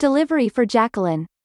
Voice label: Delivery Drone VO Common